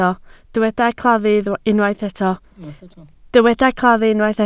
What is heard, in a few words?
"claddu", Welsh